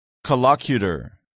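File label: "collocutor", English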